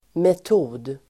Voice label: "metod", Swedish